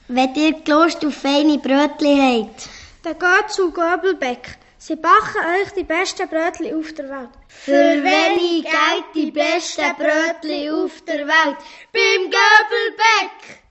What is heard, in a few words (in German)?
Werbejingle Beck; Goebel; Allmendingen